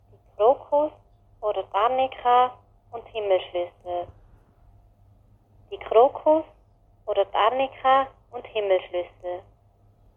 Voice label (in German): Mundart; tannheimertal